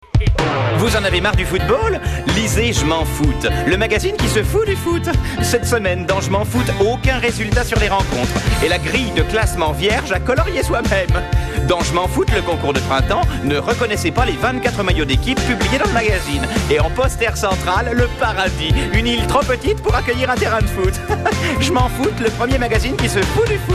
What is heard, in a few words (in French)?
radio pub spot